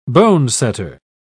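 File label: "bonesetter", British English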